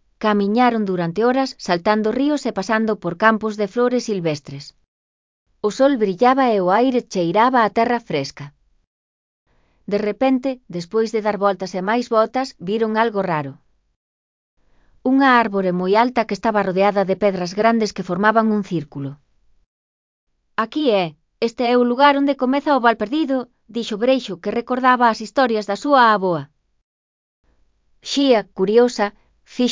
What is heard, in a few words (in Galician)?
NARRACION